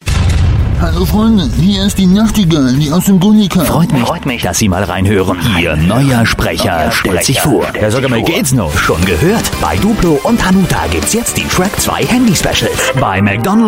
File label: German, male